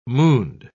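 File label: "mooned", British English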